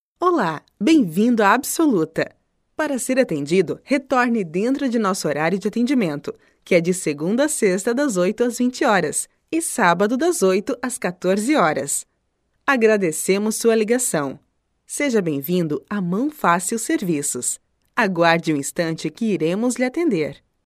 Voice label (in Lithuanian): (MOT) Brazilu balsas, moteris